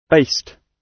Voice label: "based", gb